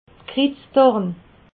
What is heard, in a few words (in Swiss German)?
"krizdorn", alsace